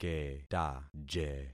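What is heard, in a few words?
"ketaje", concatenated syllables; Affixing experiment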